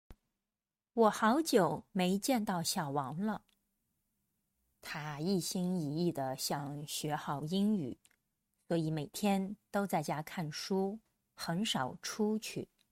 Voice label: denoised